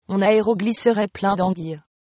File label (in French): hovercraft, fr; French